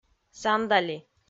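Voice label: "сандали", Komi, female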